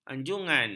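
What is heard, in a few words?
"anjungan", Malay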